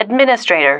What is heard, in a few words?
"administrator", female